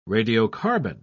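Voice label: "radiocarbon", British English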